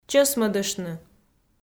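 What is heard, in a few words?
"чӧсмӧдыштны", Komi, female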